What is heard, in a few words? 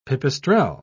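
"pipistrel", British English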